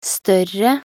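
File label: "større", Norwegian Bokmål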